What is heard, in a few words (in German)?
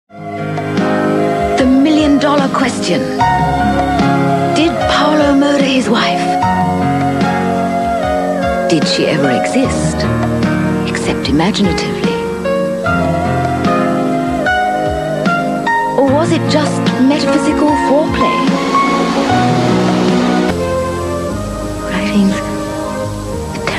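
Australian synchro, englisch; sprecher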